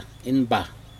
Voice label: "inbah", leaves worn around head, Aneityum